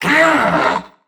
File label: Threatened Crewmen; Dialog; Barks; Battle; Warframe; CorpusCrewmen; CrewmanD